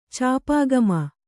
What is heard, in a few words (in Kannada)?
"ಚಾಪಾಗಮ", Kannada